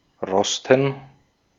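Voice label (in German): "rosten", German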